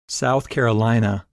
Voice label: "South Carolina", English Pronunciation

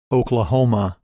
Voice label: "Oklahoma", English Pronunciation

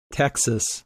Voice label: "Texas", English Pronunciation

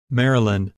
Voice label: "Maryland", English Pronunciation

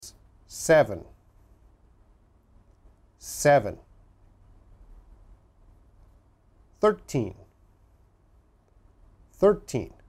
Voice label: English numbers test pronunciation

